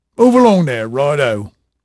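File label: English male, voice; Pirates Of The Caribbean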